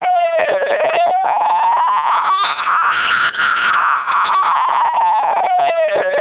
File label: siren melp, speechsamples